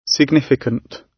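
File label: "significant", British English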